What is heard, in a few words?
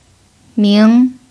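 "ming" second tone, chinese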